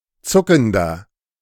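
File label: "zuckender", German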